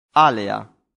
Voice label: "alea", latin; santillana

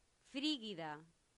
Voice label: "frigida", Latin; santillana

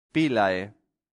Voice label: "pilae", latin; santillana

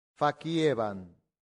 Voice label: "faciebant", Latin; santillana

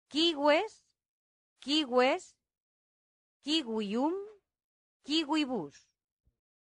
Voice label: "cives", latin; santillana

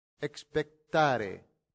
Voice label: "exspectare", latin; santillana

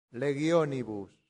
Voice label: "legionibus", latin; santillana

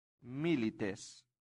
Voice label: "milites", latin; santillana

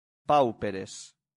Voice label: "pauperes", latin; santillana

